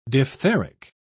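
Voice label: "diphtheric", British English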